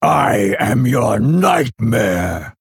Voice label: Male Orc Attack